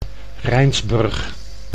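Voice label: "Rijnsburg", Dutch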